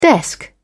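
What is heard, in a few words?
"desk", British English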